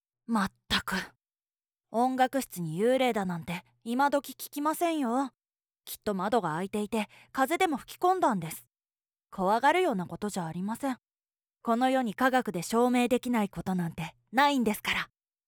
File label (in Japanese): クールな理系女子